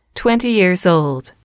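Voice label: twenty years old, female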